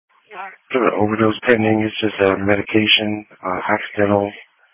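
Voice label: Police Patrol Dispatch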